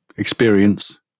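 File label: "experience", British English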